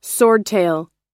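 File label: "swordtail", English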